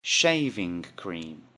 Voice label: "shaving cream", English; Jewelry and Cosmetics